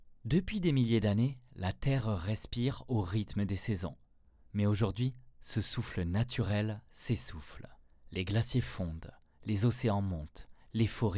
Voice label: French Narration